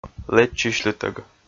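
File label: "лэдзчысьлытӧг" Komi, male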